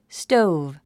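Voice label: "stove", English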